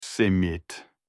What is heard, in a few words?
bread in turkish